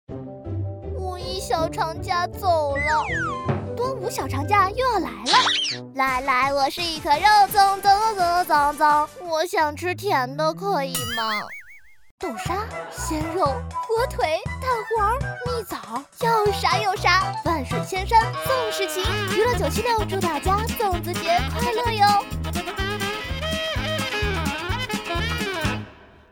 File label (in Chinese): 女 广告 电台片花